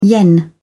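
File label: "yen", British English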